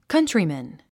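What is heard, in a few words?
"countryman", English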